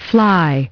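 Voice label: "fly", English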